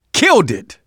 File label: kill confirmation, manager, survivor, voice; Left 4 Dead 2